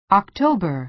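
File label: "october", American English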